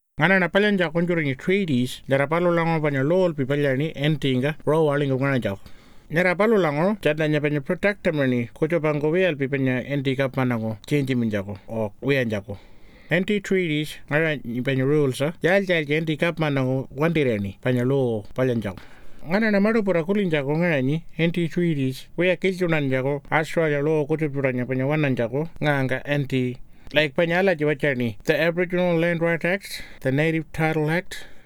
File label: Pintupi